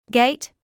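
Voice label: "gait", British English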